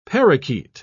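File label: "parrakeet", British English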